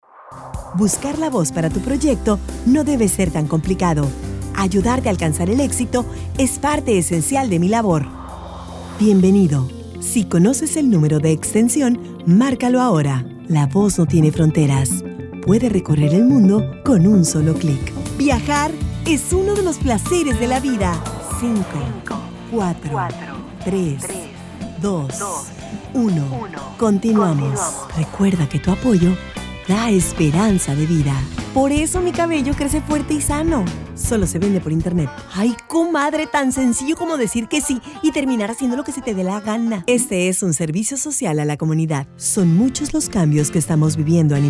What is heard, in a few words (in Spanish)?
Comercial Voiceovers